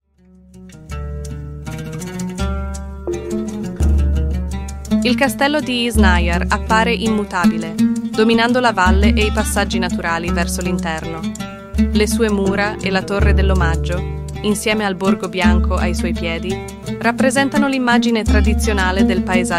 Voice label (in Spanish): audioguia italiano; Ruta audioguiada